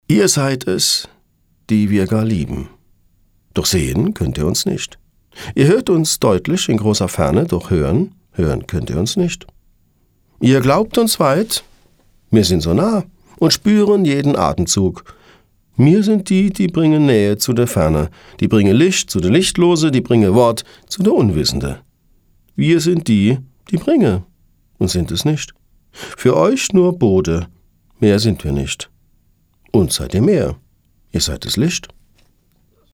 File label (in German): badisch